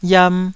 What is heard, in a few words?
"yam2", Taiwanese